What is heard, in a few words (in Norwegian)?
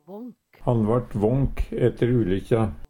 vånk, Numedalsmål; Adjektiv